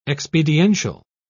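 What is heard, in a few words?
"expediential", British English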